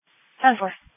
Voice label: Sheriff Dispatch